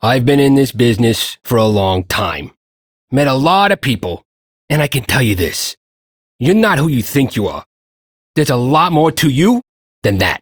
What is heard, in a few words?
Ambient Shopkeeper, Dialog; Duviri